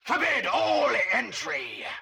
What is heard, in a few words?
Scientist Caster Chokepoint Line